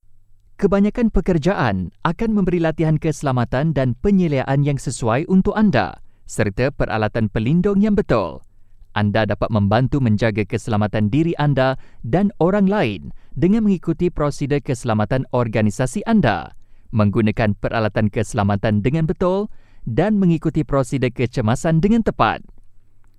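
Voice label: Malay M eLearning, male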